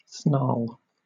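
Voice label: "snarl", British English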